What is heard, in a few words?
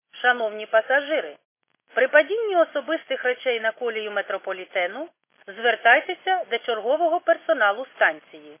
khs st female, subway station